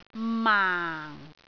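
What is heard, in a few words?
"maang4", Cantonese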